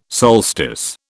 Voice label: "solstice", British